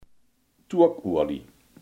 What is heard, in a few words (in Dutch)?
"stookolie", Limburgs